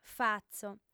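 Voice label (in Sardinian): "fatzo", female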